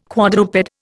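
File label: "quadruped", Indian English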